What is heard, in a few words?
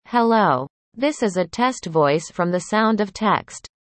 eng sound of text